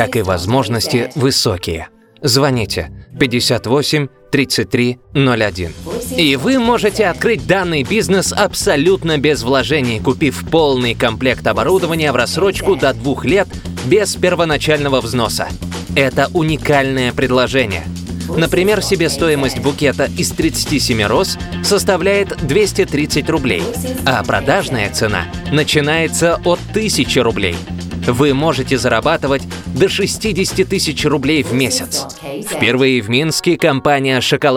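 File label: voice over Russian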